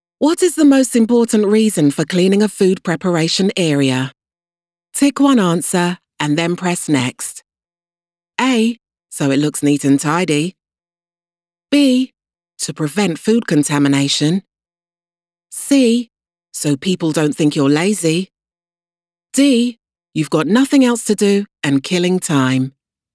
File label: english british e-learning